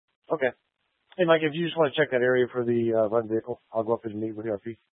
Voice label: Police Department Dispatch; Grand Junction

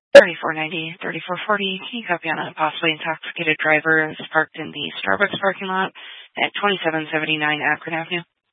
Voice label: Police Dispatch, Scanner audio recording

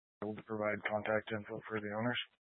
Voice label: Police Dispatch, scanner

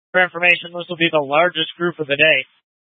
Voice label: Incident Tac 3, scanner

